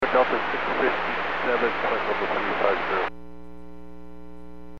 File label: Aviation 121.5 Emergency